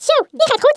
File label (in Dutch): "drop", Dutch; Worms Armageddon